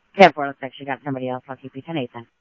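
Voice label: police department, scanner audio; SCTSDLE PD - PD2; ScottsdalePolice; ThompsonPeakHigh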